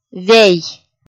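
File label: "вей", Komi, female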